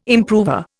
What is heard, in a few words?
"improver", Indian